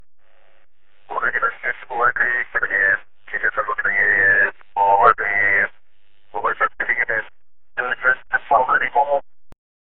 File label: police scanner reporting